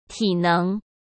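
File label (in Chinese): "体能", Mandarin Chinese; hsk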